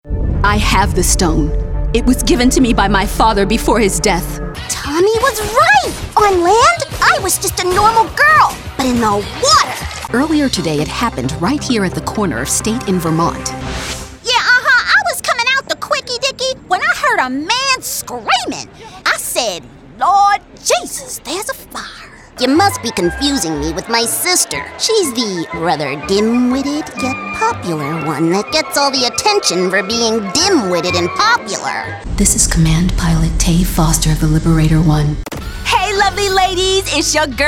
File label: Animation